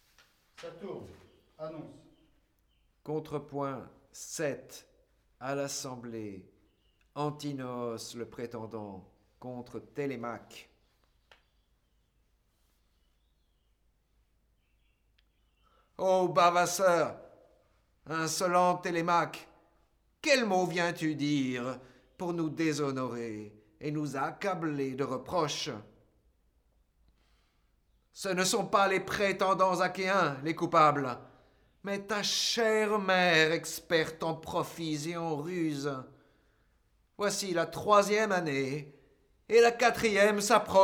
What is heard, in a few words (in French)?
Francais et grec; Le retour d'Ulysse